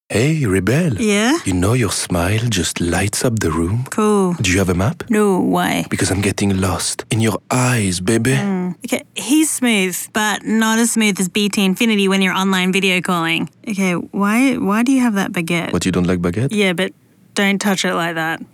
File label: Commercial English French Accented; BT Infinity